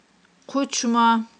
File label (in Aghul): "хъучма", Aghul